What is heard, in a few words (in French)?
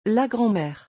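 "La grand mere", French; vocabulaire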